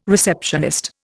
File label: "receptionist", Indian